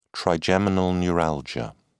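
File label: "trigeminal neuralgia", British English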